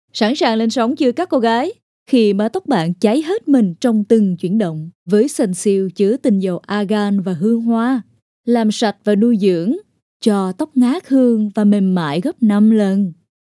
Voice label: Cool, Smart

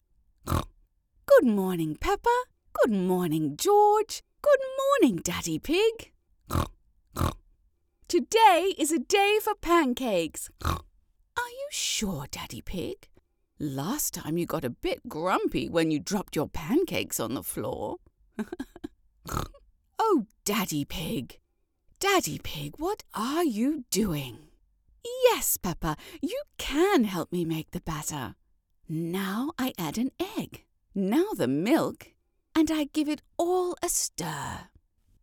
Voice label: English Animation; Ursula; Mummy Pig

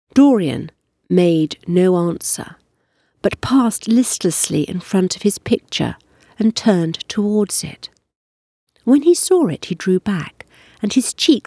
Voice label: M Narrative; Dorian Grey

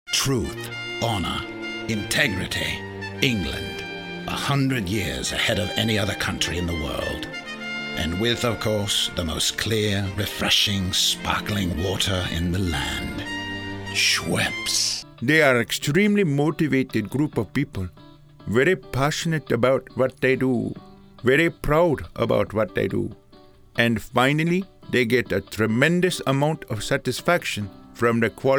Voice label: Accents

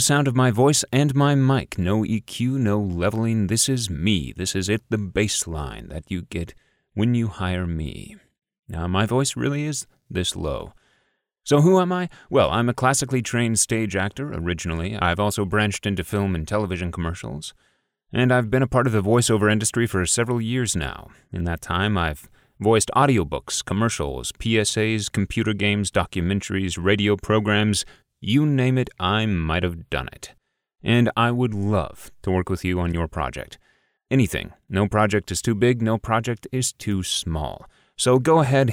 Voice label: Studio Dry

